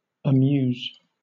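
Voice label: "amuse", British English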